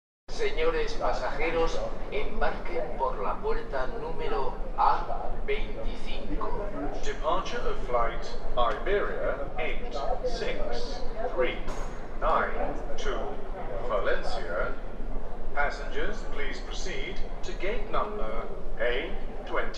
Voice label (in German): Flughafen Ansagen Mallorca